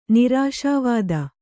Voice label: "ನಿರಾಶಾವಾದ", Kannada

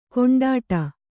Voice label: "ಕೊಂಡಾಟ", Kannada

